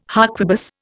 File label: "harquebus", Indian